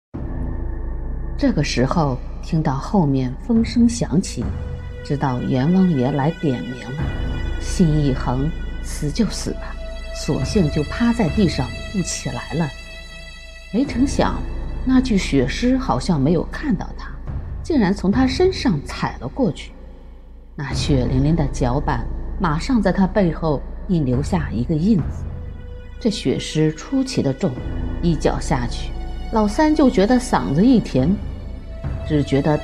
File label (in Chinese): 有声书演播